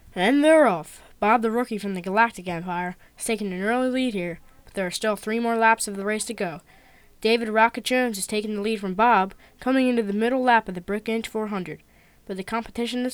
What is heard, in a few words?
anouncer; Brickshelf